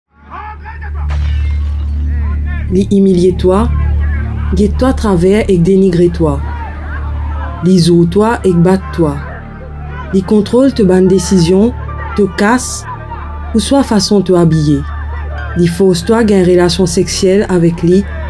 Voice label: radio